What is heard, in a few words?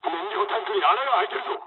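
Japanese tank damaged mood low; War Thunder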